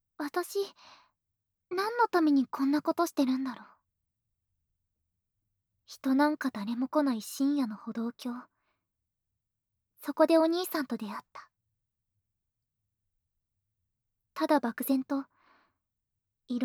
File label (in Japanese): 告知動画用ボイス; ワケ有りパパ活JKと恋をするお話